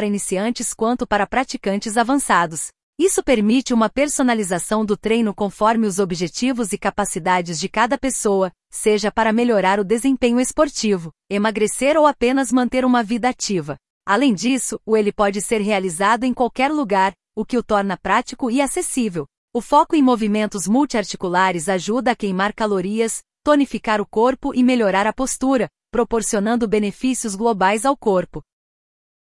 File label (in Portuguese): narracao funcional; treinamento funcional